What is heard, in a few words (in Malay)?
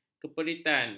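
"keperitan", Malay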